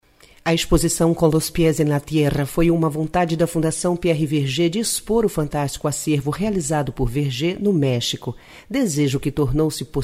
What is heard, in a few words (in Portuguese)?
locucao mexico